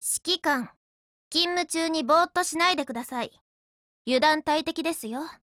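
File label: dialogue Japanese; LS26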